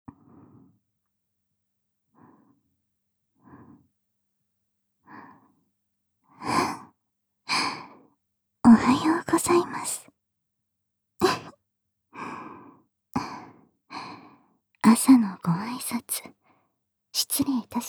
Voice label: ラブラブ目覚ましボイス; ミリア

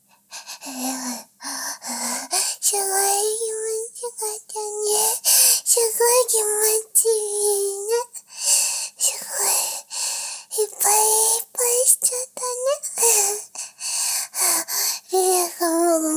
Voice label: ピロートーク 追加おなにー; 連続絶頂の天才

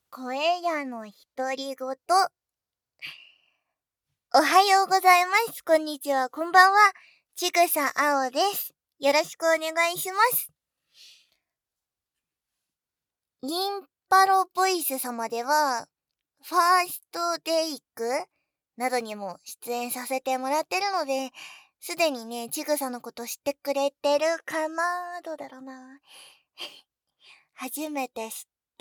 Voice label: ノイズ除去 自己紹介から質問まで

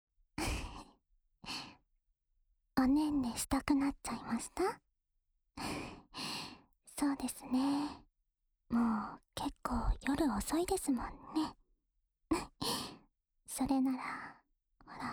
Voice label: 安眠用添い寝（右耳）, ASMR

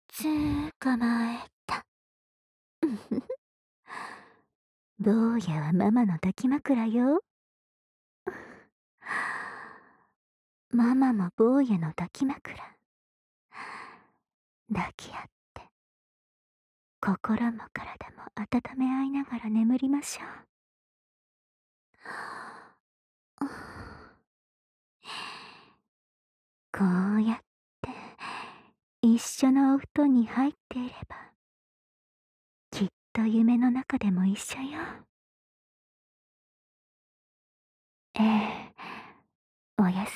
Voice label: おやすみボイス

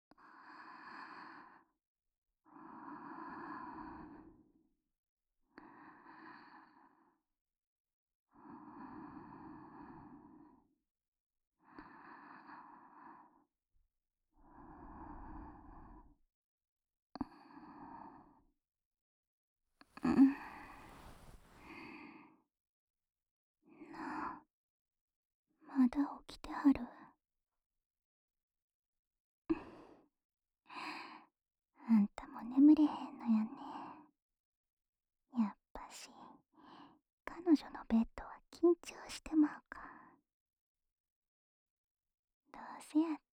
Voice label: 添い寝, ASMR

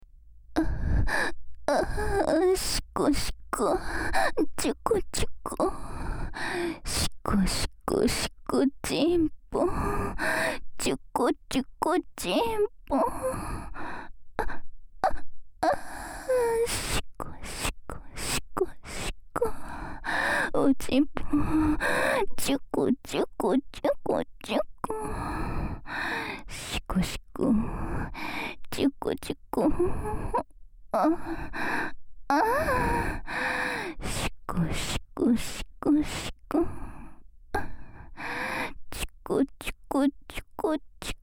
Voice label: サブキャラ 右 淫語連呼 シコシコ, ASMR

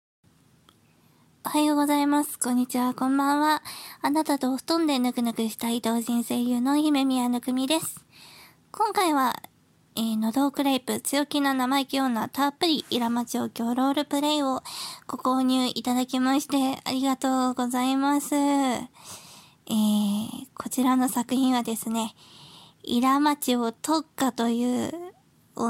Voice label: フリートーク

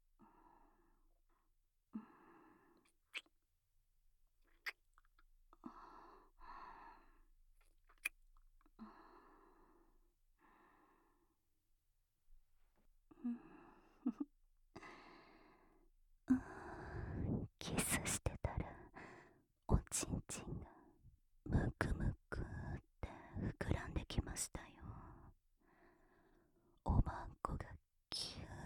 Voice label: 騎乗位 乳首責め 囁き 嘘喘ぎ キス 中出し お掃除フェラ ごっくん; 効果音なし

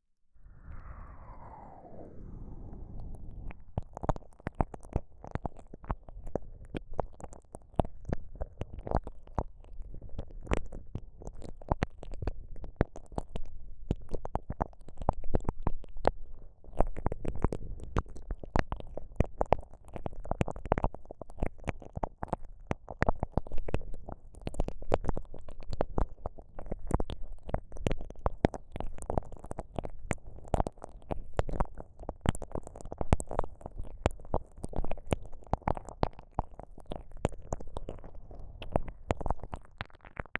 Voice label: KU100 左耳 30分に1回好き

